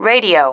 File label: "radio", female